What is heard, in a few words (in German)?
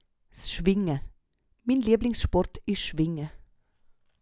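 s Schwinge, Swiss German; Wortschatz Kapitel 8; Kapitel 8 Hauptwortschatz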